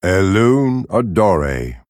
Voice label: Male Night Elf Bark